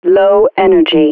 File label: vo low energy; allegiance